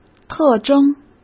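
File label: "特征", Mandarin Chinese; chinese flashcards; hsk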